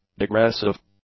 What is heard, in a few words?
"degressive", english; adjectives